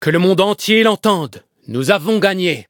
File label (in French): victory, fr; Cookie Run